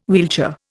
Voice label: "wheelchair", Indian